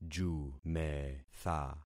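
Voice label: "jumetha", concatenated syllables; Affixing experiment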